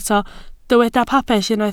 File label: "pabell", Welsh